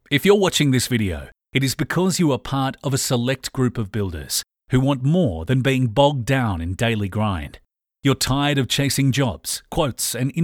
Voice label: strong corporate